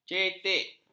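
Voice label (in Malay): "cetek", Malay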